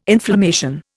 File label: "inflammation", Indian